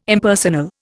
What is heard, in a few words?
"Impersonal", Indian